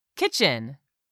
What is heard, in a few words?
"KITCHEN", english; 3000 words